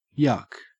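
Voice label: "yuk", Australian English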